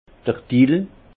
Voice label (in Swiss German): "dill", Alsace; vin; aneth